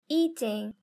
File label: "eating", english; grade3; fun with sentence